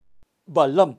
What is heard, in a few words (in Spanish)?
"Balam", kaqchikel, pronunciacion de animales